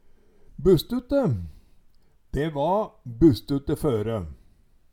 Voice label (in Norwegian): "busstutte", Numedalsmål